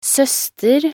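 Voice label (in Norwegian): "søster", Norwegian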